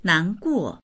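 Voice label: "nan2guo4", Mandarin Chinese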